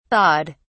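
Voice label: "thawed", British English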